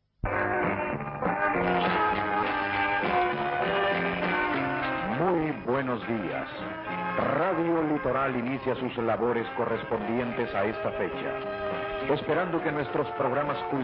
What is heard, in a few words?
4830, Honduras; R Litoral